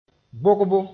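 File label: "bogbo", Mooré; vaccination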